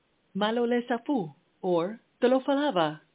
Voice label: Samoan, hello